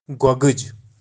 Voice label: "gogij", Kashmiri